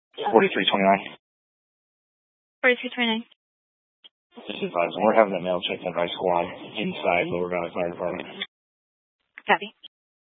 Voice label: Mesa County Sheriff Dispatch; scanner

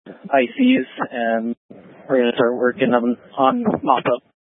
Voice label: Incident Tac, scanner

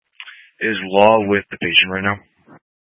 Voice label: Fire Dispatch

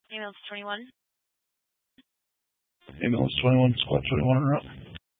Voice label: Fire Dispatch, scanner

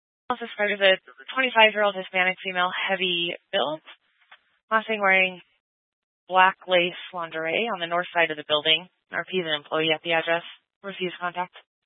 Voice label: Police Department Dispatch, scanner

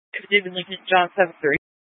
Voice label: Police Department Dispatch; Grand Junction

